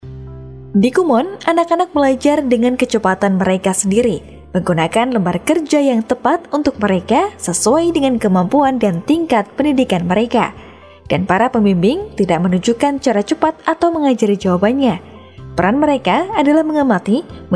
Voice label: INDO CASUAL, voiceover